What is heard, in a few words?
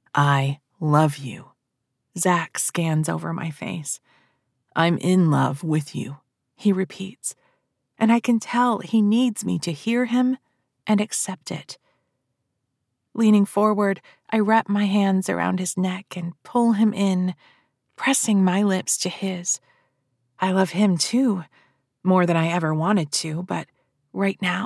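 male female Romance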